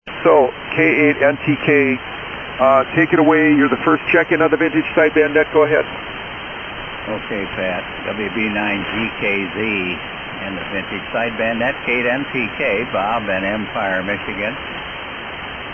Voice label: Central Electronics 20A & Drake R-8 & SB-200 Amp; Empire,MI.